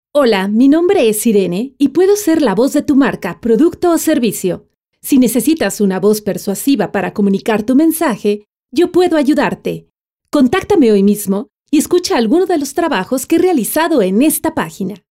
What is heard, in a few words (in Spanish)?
Locutora